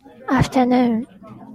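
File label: "afternoon", Japanese female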